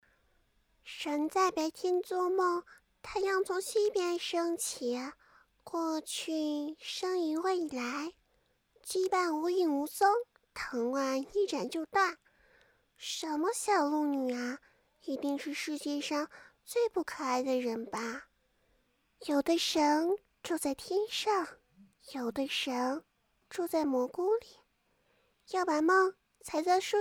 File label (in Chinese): 萝莉音